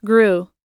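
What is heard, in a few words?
"grew", American English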